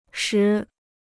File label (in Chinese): "时", Chinese